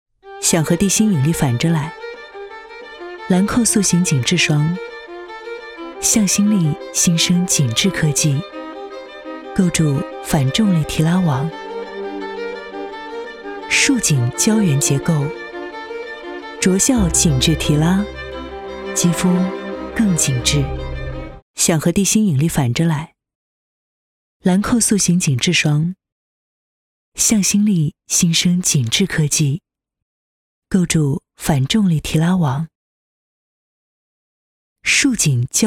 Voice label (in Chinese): 成熟优雅